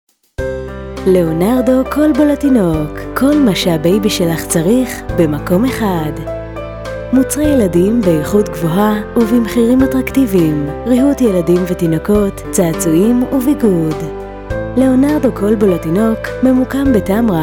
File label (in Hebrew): קריינות רכה; לאונרדו